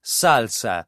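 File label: "salsa", Italian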